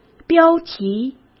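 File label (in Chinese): "标题", Mandarin Chinese; chinese flashcards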